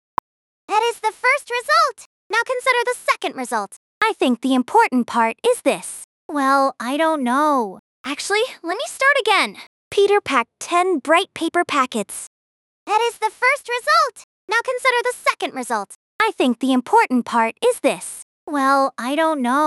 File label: speech female high fast